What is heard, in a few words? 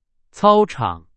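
Chinese; hsk4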